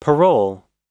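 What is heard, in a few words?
"parole", American English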